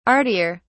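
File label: "artier", English, British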